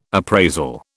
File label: "appraisal", British